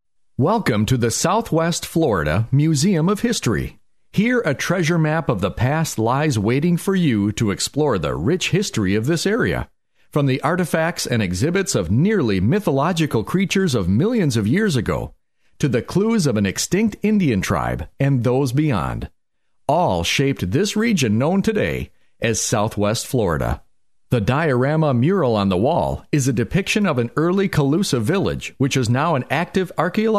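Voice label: American English, male, voice over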